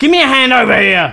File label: Help, male, voice commands; svencoop